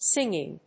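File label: "singing", English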